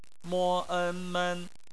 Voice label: "men", Chinese; phonetics